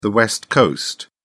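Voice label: "west coast", British English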